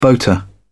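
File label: "boater", British English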